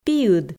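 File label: "пиыд" Komi, female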